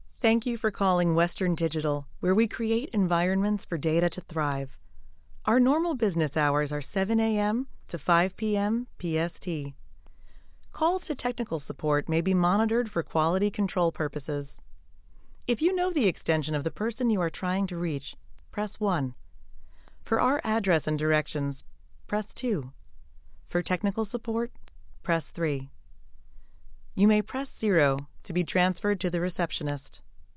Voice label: Telephony